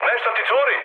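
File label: Serbian tank damaged mood high; War Thunder; speech srb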